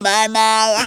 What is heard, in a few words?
"MYMAOH", funky vocals; USB Soundscan vol.18; AKAI; TB MELODY